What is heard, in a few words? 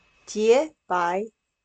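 "洁白", Chinese; 我多想去看看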